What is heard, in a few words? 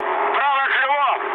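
right wing, mood med, Russian; War Thunder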